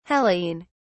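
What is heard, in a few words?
"hellene", British English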